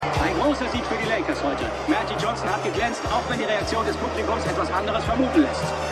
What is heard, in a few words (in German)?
Sportkommentator; Winning Time